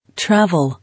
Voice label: "Travel", English